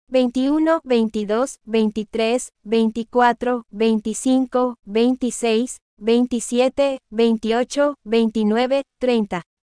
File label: Spanish numbers pronunciation 21 to 30